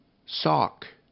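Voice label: "sock", English; RhymeTest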